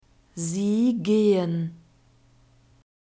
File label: "Sie gehen", German